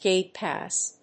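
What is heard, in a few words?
"Gate pass", English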